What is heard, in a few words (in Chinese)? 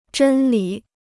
"真理", Chinese